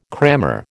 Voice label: "crammer", American

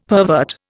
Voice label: "pervert", Indian; Dictionary

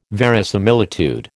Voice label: "verisimilitude", American

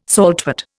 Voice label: "saltwort", Indian

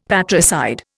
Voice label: "patricide", Indian; Dictionary

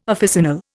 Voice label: "officinal", Indian

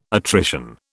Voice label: "attrition", British

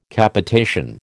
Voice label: "Capitation", American

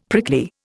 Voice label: "prickly", Indian; Dictionary

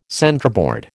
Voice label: "centreboard", American

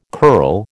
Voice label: "curl", American

